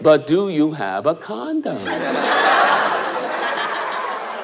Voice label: but do you have a condom laughter